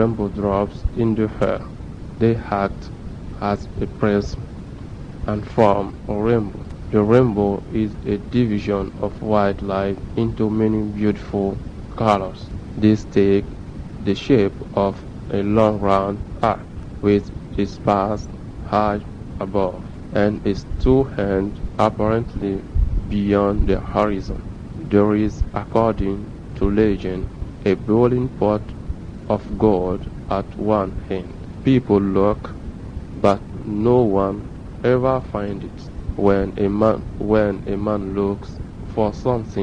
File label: guinea, dialects